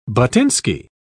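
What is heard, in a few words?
"buttinsky", English, British English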